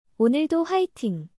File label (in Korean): "오늘도 화이팅！", Korean; オヌルド ファイティン！